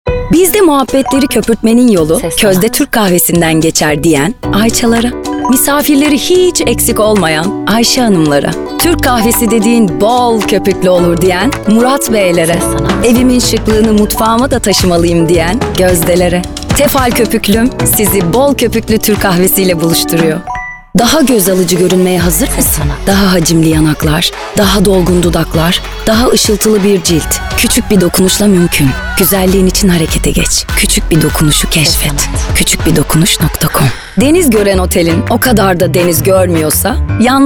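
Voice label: Turkish, female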